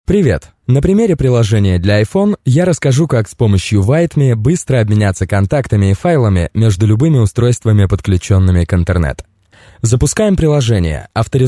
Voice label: ru homme

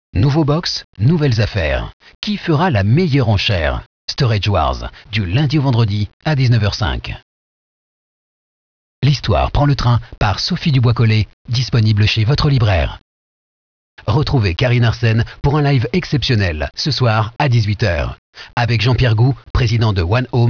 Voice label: homme

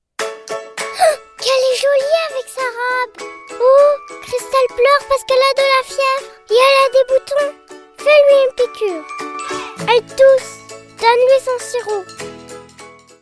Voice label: Voix Enfant 6 ans

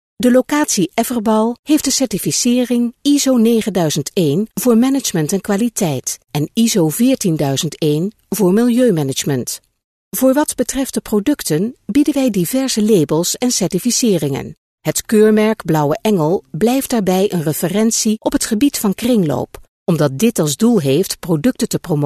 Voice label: neerlandais femme

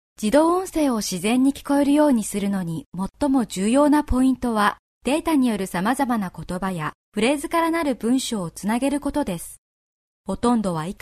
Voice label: japonais femme

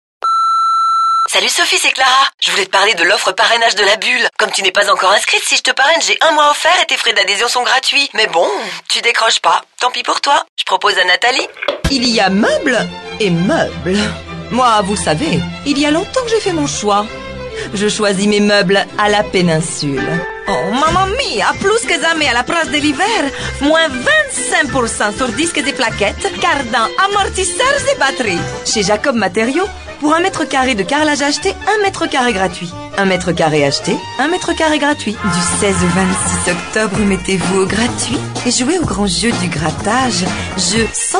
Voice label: femme

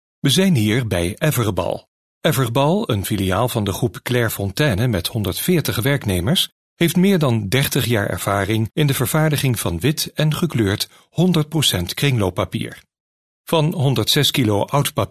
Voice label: neerlandais homme

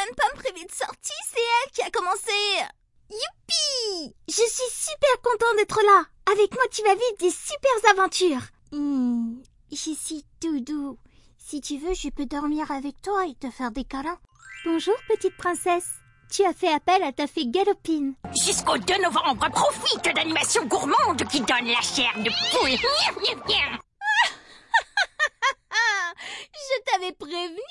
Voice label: Demo de personnages pour enfant

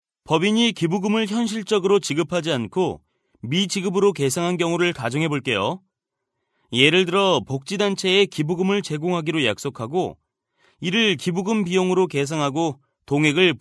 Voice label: korea homme

